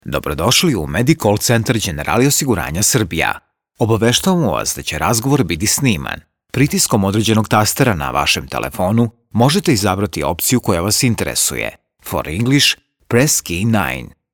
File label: IVR